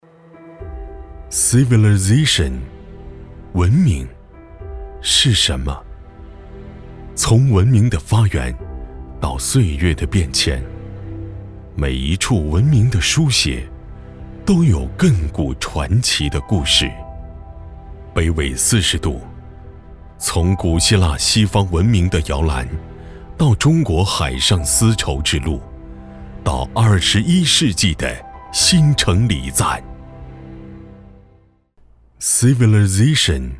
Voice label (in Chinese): 宣传; 文明是什么